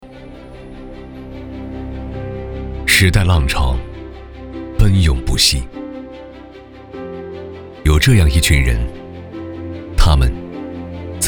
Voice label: 男 宣传片 磁性宣传

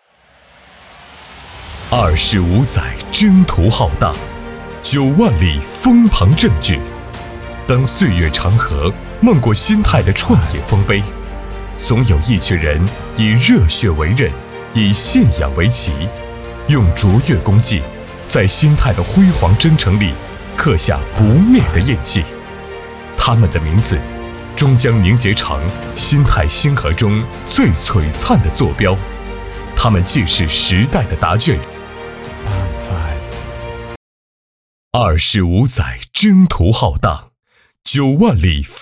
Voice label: 男 颁奖; 新天然气二十五周年

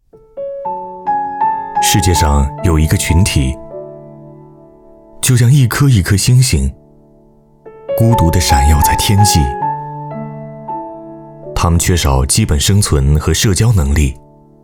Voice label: 男 走心旁白

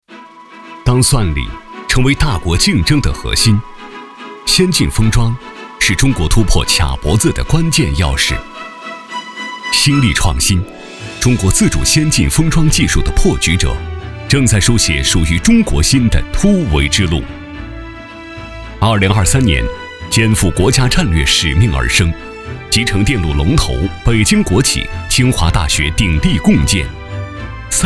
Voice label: 男 专题; 芯力创新

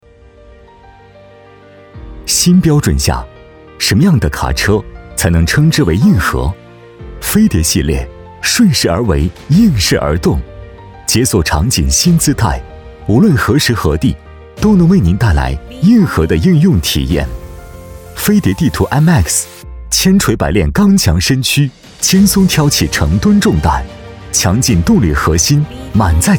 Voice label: 男 汽车 科技感; 飞碟汽车

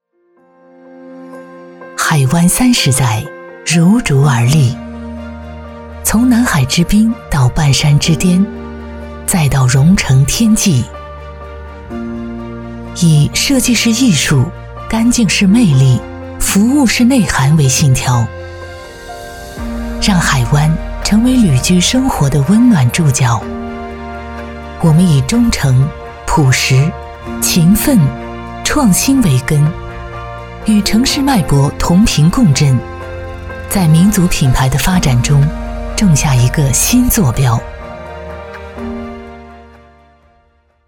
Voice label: 女 高级感宣传片; 海湾酒店

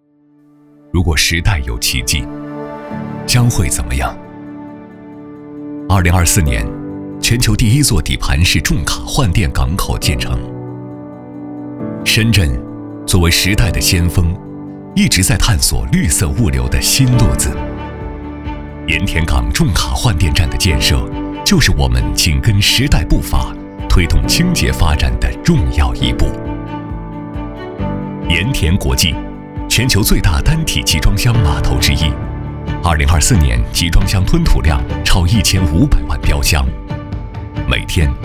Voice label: 男 专题; 时代骐骥

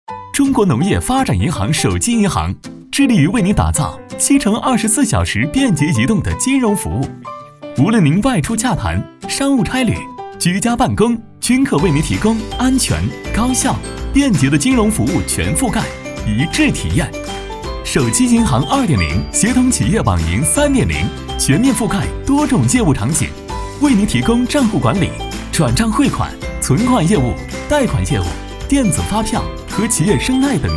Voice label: 男 手机银行; MG; 中国农业发展银行手机银行

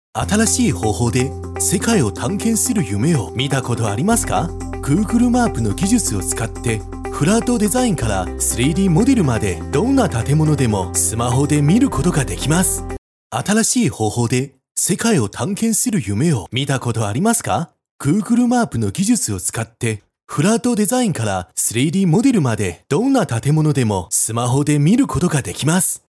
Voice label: 男 日语

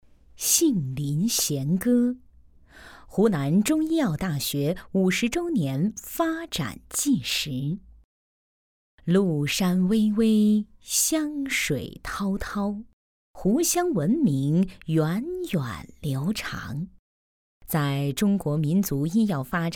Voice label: 女 专题 大气稳重

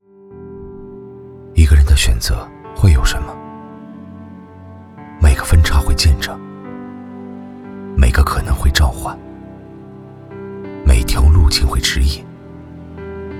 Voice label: 男 走心旁白

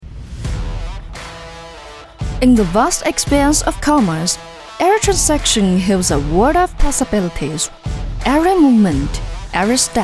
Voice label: 女 英语 活力

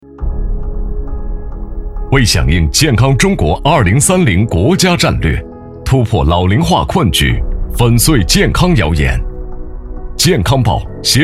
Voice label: 男, 专题; 健康中国

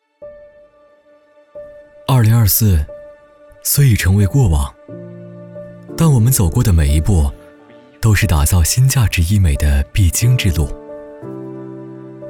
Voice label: 男 年度总结 走心旁白

